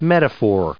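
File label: "metaphor", English; noun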